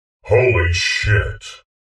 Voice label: holy shit downtone; csgo